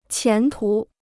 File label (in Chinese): "前途", Chinese